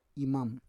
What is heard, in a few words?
"imam", Roon